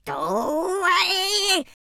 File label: angry